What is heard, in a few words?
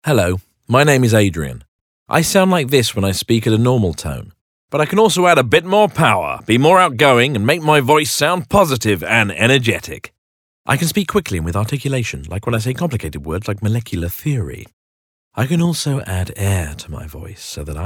male english showreel; Devine Voices